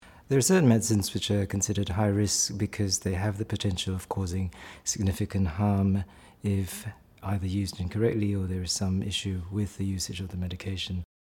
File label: Corporate